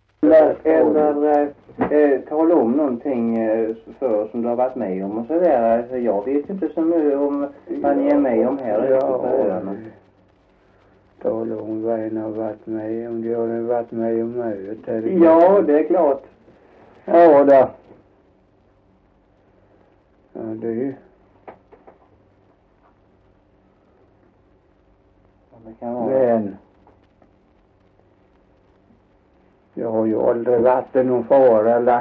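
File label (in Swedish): Torhamn Blekinge, dialekter; Folkminnen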